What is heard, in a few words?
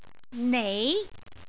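"nei2", Cantonese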